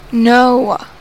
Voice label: annoyed no